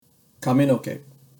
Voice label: "kaminoke", Japanese; Basic Japanese Terms

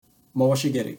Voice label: "mawashi geri", basic japanese words; Basic Japanese Terms

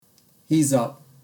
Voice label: "hiza", basic japanese words; Basic Japanese Terms

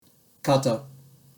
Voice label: "kata" Japanese

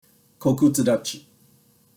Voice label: "Kokutsu-dachi" Japanese

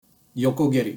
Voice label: "Yoko-Geri" Japanese

